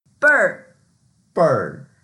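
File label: "ber4", Mandarin Chinese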